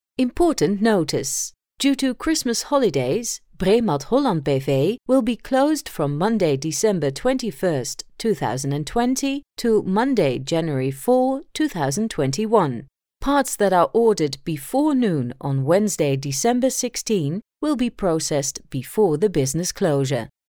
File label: english ivr